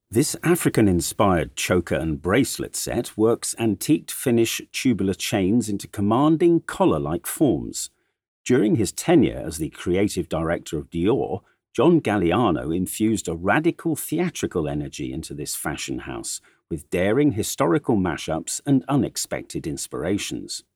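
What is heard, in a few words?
English, audio guide; MET; the met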